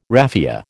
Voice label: "raffia", American